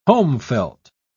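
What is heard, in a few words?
"homefelt", British English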